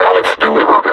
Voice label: announce kill player, combine suppressor; Half Life Alyx